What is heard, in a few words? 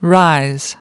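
"rise", English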